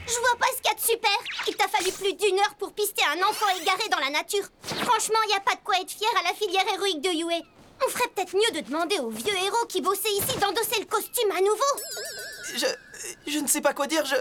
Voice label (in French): Voix fille; Mahoro; film My hero academia